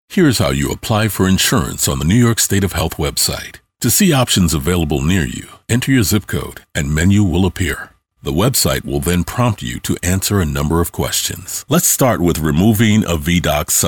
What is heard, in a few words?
E LEARNING